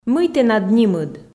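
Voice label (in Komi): мый тэнад нимыд, Komi, female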